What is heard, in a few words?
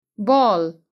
"ball", english; sun and light